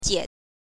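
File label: "jie", Mandarin pinyin, neutral tone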